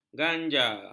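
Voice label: "ganja", Malay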